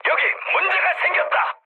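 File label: Korean aircraft damaged mood high; War Thunder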